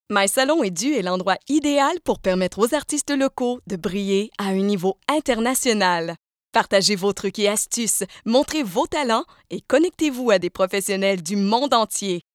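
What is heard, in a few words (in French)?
publicité internet; l oreal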